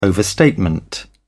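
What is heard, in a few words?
"overstatement", English, British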